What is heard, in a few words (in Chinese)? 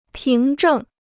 "凭证", Chinese